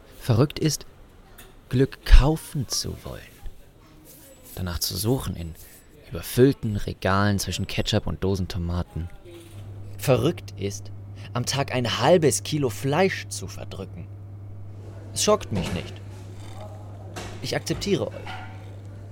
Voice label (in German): eher wuetend; Aufgeknoepft